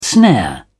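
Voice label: "snare", British English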